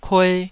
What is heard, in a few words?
"kue2", Taiwanese syllable